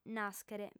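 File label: "nàschere" Sardinian, female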